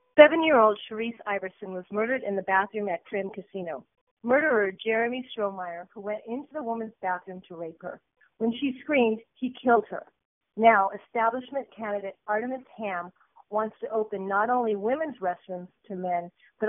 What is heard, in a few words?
voicemail